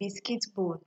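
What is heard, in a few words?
"biscuit bone", Nigerian Pidgin, female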